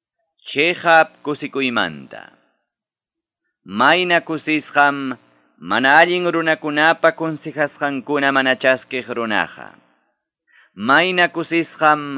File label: salmos, quechua ayacucho; quechua ayacucho pbs non drama